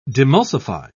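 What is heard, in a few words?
"demulsify", British English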